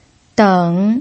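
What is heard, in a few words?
"deng", Mandarin Chinese, third tone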